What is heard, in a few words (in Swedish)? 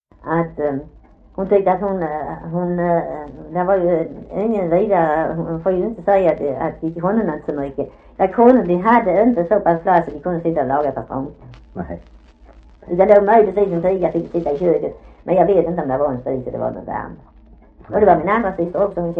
Harplinge, dialekter; svenska sagor; Folkminnen